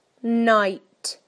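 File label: "night", British English; PictureDictionary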